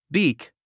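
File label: "beak", English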